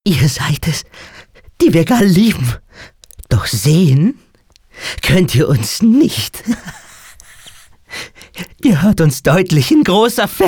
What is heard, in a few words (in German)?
psycho, maenner